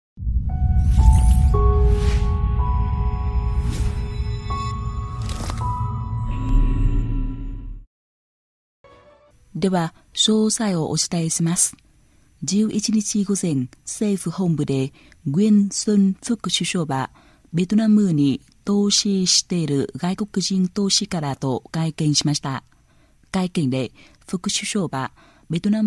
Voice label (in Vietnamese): Thu am Ban Tin Tieng Nhat